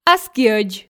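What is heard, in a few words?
"аскиӧдз", Komi, female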